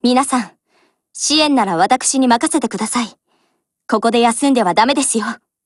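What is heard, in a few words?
BLACK ACTION Japanese, Voice; GF Alarm; FAMAS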